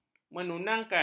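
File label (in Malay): "menunangkan", Malay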